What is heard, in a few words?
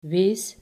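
"wees", Dutch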